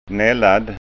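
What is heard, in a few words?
"nallad", Scanian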